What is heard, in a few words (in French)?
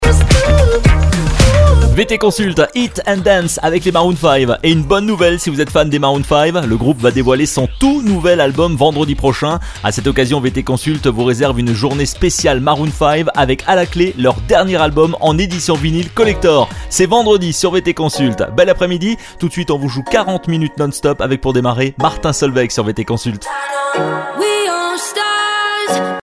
jeune